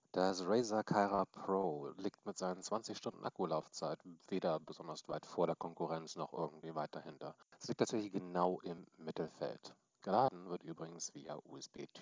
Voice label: Mic Test; Razer Kaira Pro